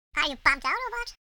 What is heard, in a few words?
crazy; wormux